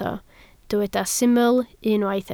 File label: "syml", Welsh